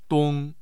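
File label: "dong", Mandarin Chinese, tone 1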